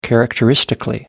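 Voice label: "characteristically", British English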